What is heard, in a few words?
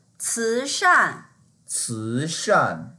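"ci2shan4", Mandarin Chinese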